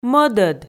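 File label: "мӧдӧд", Komi, female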